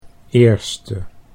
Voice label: "eerste", Dutch